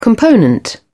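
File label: "component", British English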